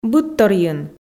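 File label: "быдторйын", Komi, female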